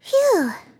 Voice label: healed, RPG Voice; RPG Voice Starter Pack